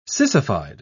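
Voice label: "sissified", British English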